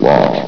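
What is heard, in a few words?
"walk", male; vox; source engine; hl1; video games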